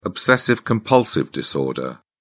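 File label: "obsessive-compulsive disorder", British English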